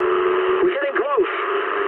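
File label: English near waypoint mood medium; War Thunder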